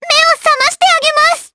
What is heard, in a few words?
Vox Skill jp; Estelle; kingsraid